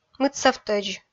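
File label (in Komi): "мытсавтӧдз", Komi, female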